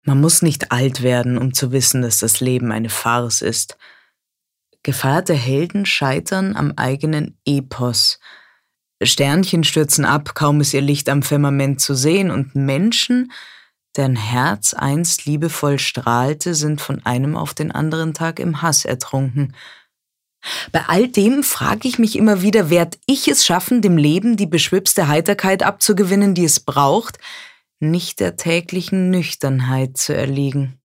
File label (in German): Nachdenklich; Weltschmerz